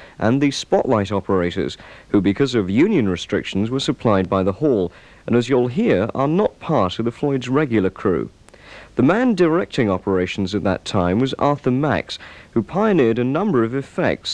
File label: intercom; lighting